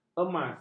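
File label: "emas", Malay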